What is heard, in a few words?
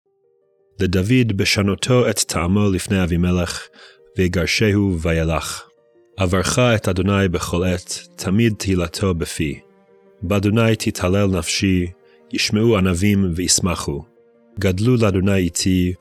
poetry and prayer psalm hebrew